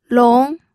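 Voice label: "long", second tone, pinyin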